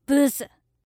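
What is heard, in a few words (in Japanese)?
"ブス", Japanese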